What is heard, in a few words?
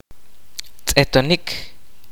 "see", kaqchikel